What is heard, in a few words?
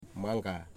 "edible inside part breadfruit", Wamut